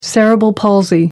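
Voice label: "cerebral palsy", British English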